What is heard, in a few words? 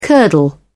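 "curdle", British English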